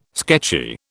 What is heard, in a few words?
"sketchy", British